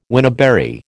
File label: "wineberry", American